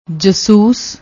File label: "jasoos", Punjabi